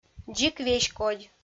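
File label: "дзик веськодь", Komi, female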